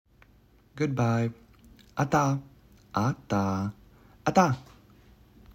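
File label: Good bye, Latvian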